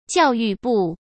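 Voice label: "教育部", Mandarin Chinese; chinese flashcards; hsk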